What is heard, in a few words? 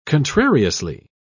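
"contrariously", British English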